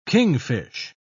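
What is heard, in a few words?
"kingfish", British English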